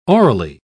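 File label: "aurally", British English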